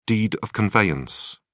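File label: "deed of conveyance", British English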